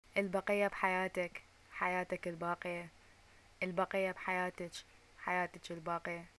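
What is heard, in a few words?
greeting death, Iraqi Arabic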